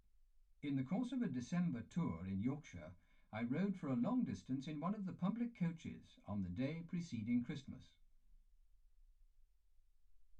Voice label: male speech english